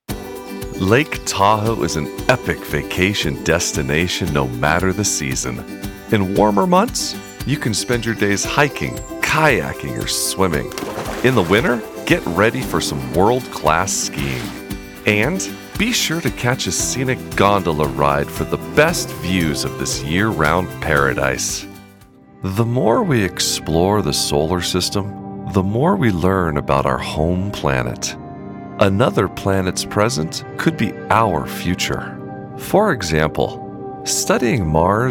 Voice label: Narration, Male Voice Over; commercials, book narration, podcasts or e-learning